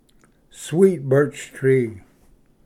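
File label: "sweet birch tree", English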